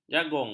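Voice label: "jagung", Malay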